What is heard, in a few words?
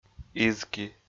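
"изки", Komi, male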